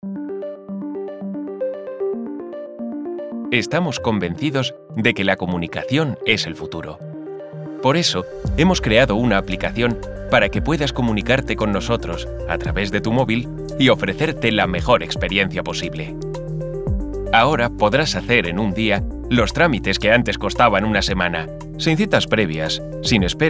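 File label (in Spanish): generico España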